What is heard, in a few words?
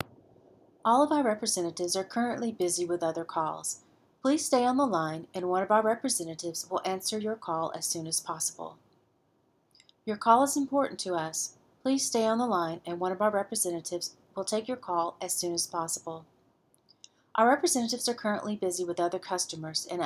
On Hold Recordings, voice over